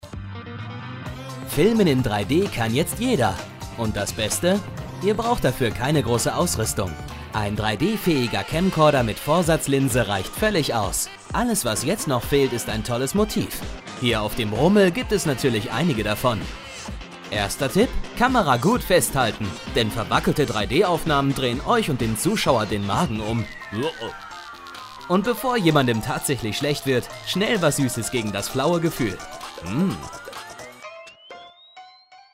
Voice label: Imagefilm; panasonic

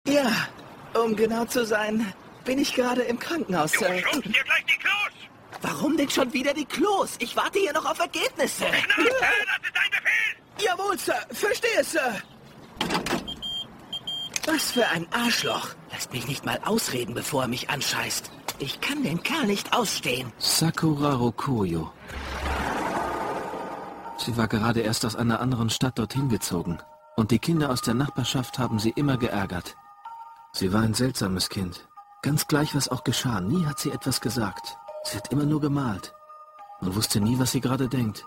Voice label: anime zeichentrick

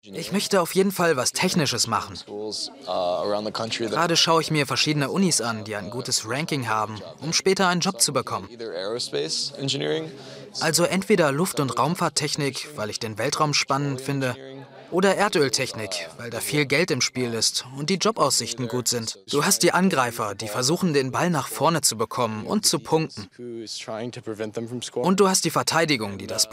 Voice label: voice-over deutsch-englisch

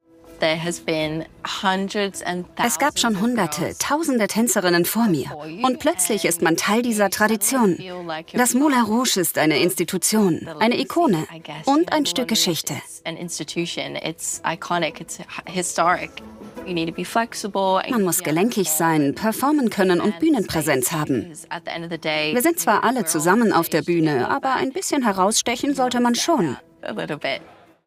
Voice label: voiceover doku; zdf 3sat orient express

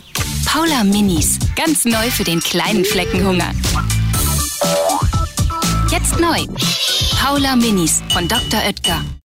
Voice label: Werbung TV; dr. oetker

